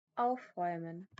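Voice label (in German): "aufräumen", German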